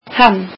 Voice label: icelandic he